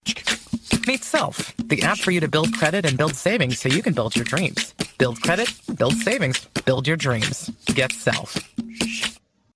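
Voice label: credit union experienced confident relaxed thoughtful grounded helpful gravitas warm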